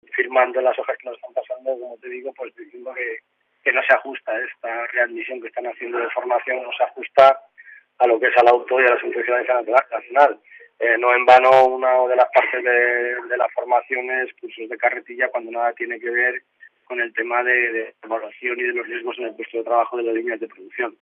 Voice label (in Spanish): noticias